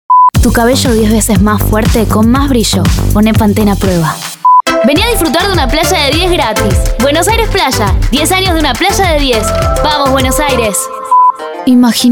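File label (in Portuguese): Comercial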